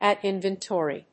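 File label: "ad inventory", English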